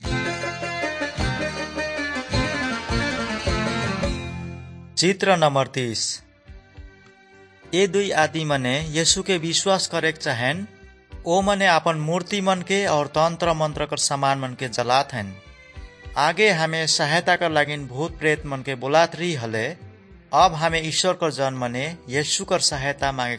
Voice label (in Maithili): good news maithili, nt drama